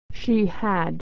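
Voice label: "she had", American English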